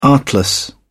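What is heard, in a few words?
"artless", English, British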